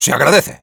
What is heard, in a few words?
thanks, es; Sniper